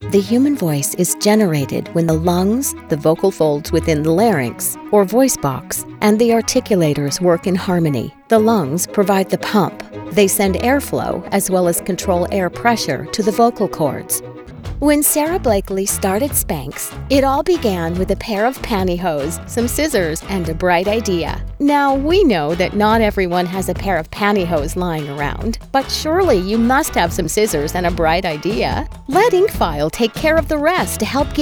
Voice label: NARRATION